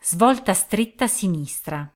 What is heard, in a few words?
Sharp Left, Italian female